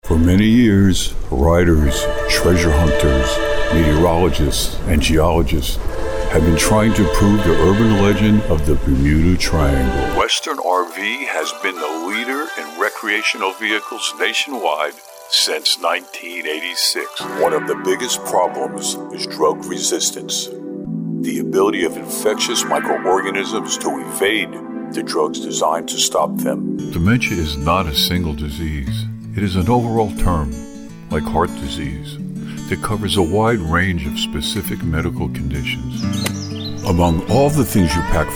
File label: narrations, voiceovers; sizzle